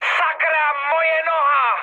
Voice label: damaged pilot, speech cze, mood high; War Thunder

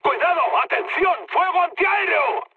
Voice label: damaged aa, mood high, speech; War Thunder

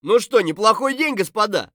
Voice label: scav mutter, voice; Escape from Tarkov; scav3

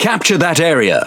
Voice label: Pirate Speech Quick Commands Enemy Point; World Of Warships

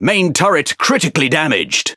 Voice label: Speech Defective Modules Main Gun Damaged, EN GB; World Of Warships

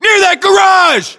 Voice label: US landmark near garage, battlechatter, english

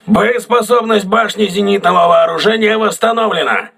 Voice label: voice message aa turret repaired, russian

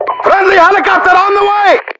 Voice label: friendly heli, voiceovers english

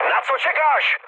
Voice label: attack position, speech cze, mood med; War Thunder

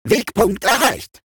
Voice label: robot Autopilot Checkpoint; World Of Warships

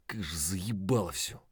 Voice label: mutter; Escape from Tarkov

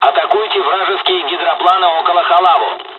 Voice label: us attack, dialogs russian; War Thunder

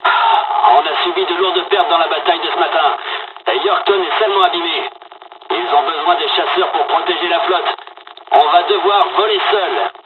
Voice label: dialog, dialogs french; War Thunder; midway

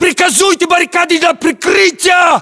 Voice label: order cover barricade, ru; localized english

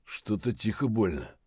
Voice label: mutter, voice; Escape from Tarkov

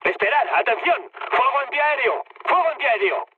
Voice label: damaged aa, mood med, speech; War Thunder

